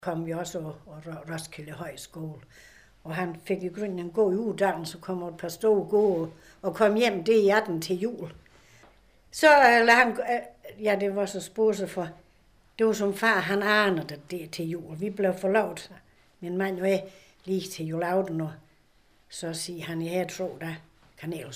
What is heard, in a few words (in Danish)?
vestsonderjysk, dialekt